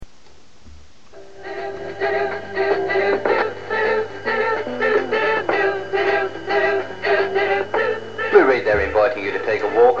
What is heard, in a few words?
Radio 219 recording